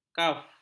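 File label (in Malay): "kaf", Malay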